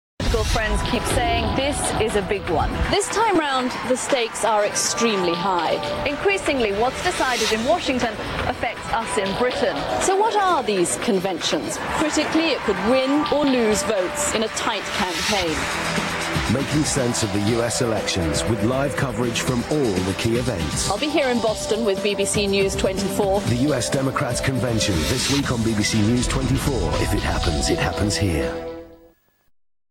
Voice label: promo democratic; bbc; news24